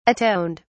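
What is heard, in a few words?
"atoned", English, uk British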